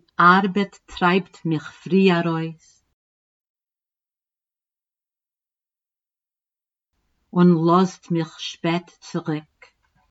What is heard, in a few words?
Mayn Yingele Pronunciation D and E drill